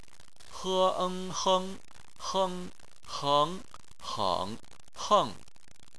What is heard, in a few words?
"heng", chinese